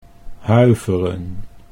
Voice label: "huiveren", Dutch

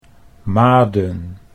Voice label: "maden", Dutch

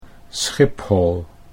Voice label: "Schiphol", Dutch

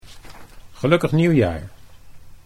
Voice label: "nieuwjaar", Dutch

